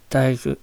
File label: Japanese daiaku g approximated; spontaneous speech